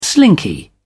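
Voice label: "slinky", British English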